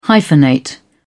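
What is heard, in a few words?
"hyphenate", British English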